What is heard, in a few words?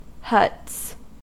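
"huts", US English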